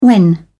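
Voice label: "when", British English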